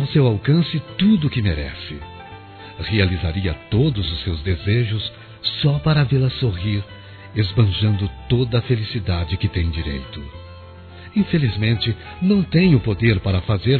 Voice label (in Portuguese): Aniversário Tia Masculino; Aniversários; Aniv Familiar